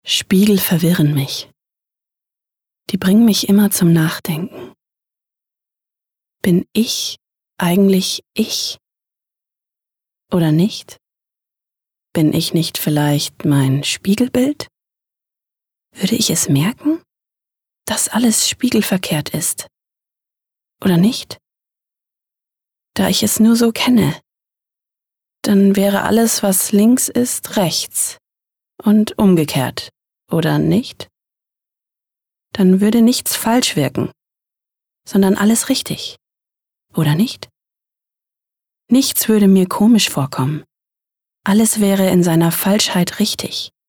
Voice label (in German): Innerer Monolog